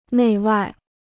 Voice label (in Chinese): "内外", Chinese